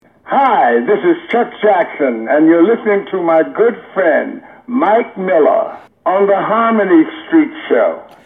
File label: promo